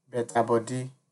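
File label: "better body", Nigerian Pidgin, male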